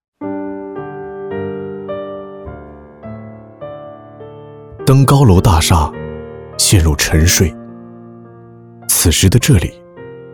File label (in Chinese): 男 工程解说; 江跳线